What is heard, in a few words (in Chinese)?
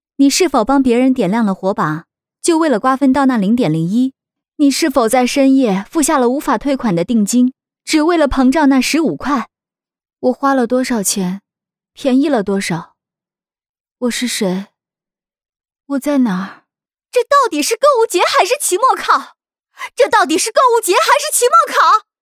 角色 各种情绪女